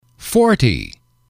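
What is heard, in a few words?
"forty", English, male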